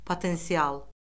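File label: "potensial", Azerbaijani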